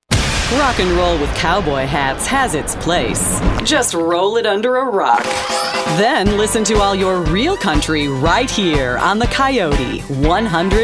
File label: imaging, female voice